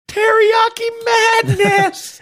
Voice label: saying teriyaki madness funny manner